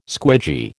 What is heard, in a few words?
"squidgy", American